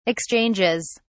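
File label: "exchanges", British English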